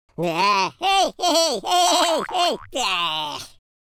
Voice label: taunt, Welsh; speech

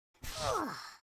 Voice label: relief; Biggles

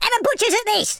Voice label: "fire", London Cabbie; speech

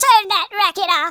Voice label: "go away", Grandpa

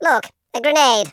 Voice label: "grenade", Patronising speech

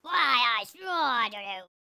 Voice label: angry, speech; Biggles

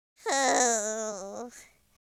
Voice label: sad

